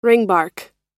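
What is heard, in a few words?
"ringbark", spoken word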